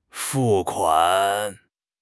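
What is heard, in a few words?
"fu4 kuan3", Chinese; 汉语口语新时代-初级下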